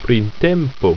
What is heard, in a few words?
"printemp", ido